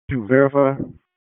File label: RFD HQ DISP, P25 recordings; wake